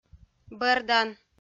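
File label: "бӧрдан", Komi, female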